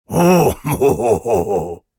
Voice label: cartoon caveman finding humor; fvtt sonniss gdc audio